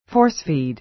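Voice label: "force-feed", American English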